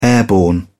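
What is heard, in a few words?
"airborne", British English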